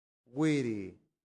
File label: "viri", latin; santillana